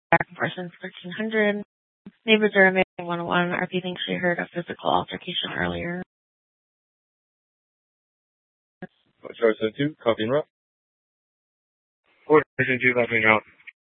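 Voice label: Police Department Dispatch, scanner; TOPAZ Regional Wireless Cooperative (TRWC) - Mesa Simulcast; Mesa PD; 4 Superstition Dispatch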